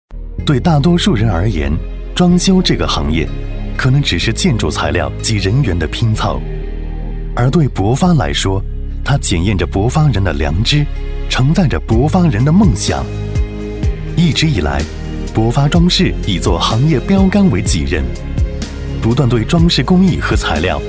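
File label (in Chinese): 国语 男声 宣传片 大气浑厚磁性 沉稳; 装饰公司-专题片-大气、亲切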